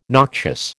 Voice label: "noxious", American

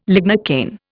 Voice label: "lignocaine", Indian

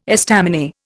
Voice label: "estaminet", Indian

